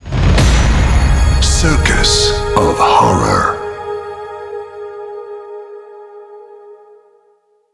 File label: announce; circus of horror